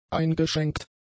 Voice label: "eingeschränkt", German; adjectives